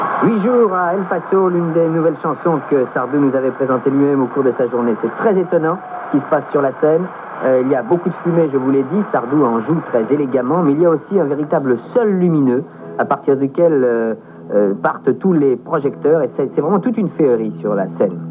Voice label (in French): Commentaires; Europe1; A LA RADIO (ANNEES 1970)